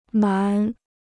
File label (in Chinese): "蛮", Chinese